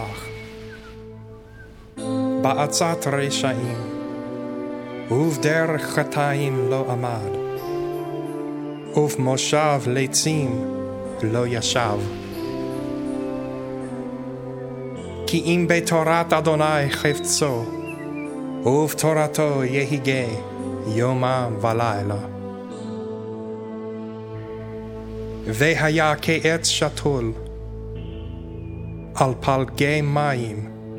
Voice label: Psalm 1 Narration